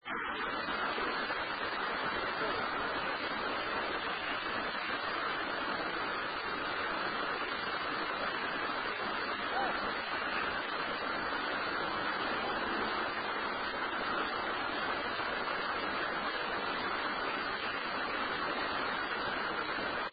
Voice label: Trailer Unexplained voice HEY; Case 022 Lake Shawnee Park